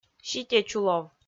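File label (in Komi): "ситечулов", Komi, female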